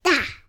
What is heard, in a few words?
human toddler 18 months boy say word gibberish